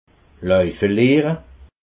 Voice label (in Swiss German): "laufe", alsace